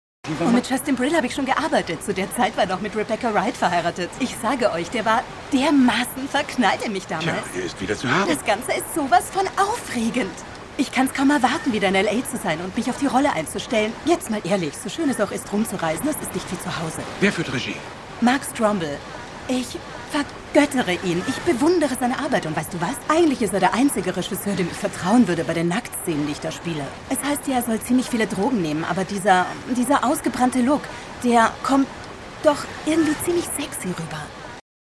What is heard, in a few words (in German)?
synchron frisch heiter, sprecherin; synchrondemo